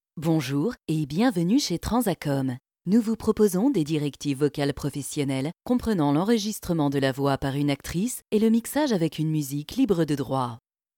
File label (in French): Neutre Naturel, actrices